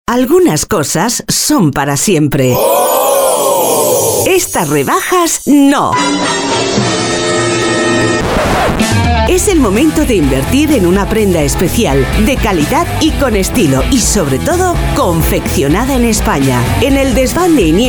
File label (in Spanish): MUJER